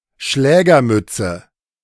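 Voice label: "Schlägermütze", German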